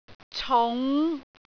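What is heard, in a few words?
"cung5", Cantonese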